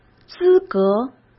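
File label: "资格", Mandarin Chinese; hsk; chinese-flashcards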